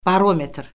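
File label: "barometr", Azerbaijani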